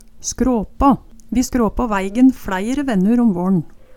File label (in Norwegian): "skråpå", Numedalsmål